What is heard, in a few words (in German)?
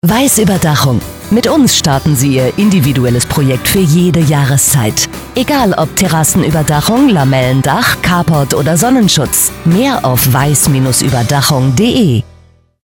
Spot; Weiss Ueberdachungen